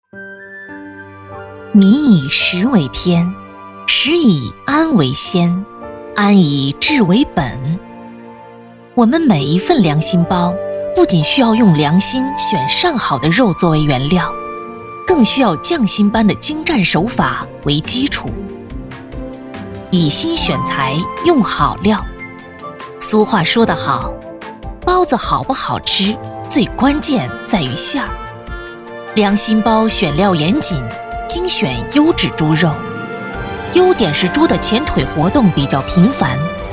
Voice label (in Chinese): 专题片美食解说